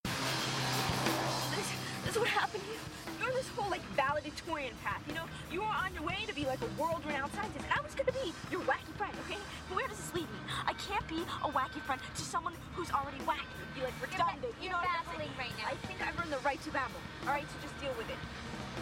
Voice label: english